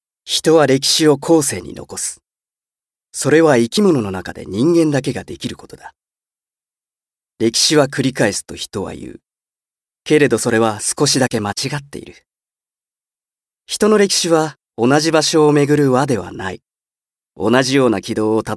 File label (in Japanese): モノローグ